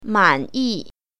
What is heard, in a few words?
"man3 yi4", Mandarin Chinese; 滿意